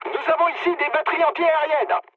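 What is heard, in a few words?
French damaged aa mood med; War Thunder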